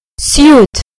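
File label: "suit", British English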